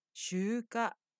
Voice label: "shuuka", Japanese

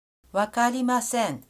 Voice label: "wakari masen", Japanese

